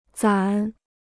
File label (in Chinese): "攒", Chinese